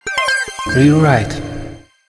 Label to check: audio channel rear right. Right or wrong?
Right